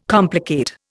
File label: "complicate", Indian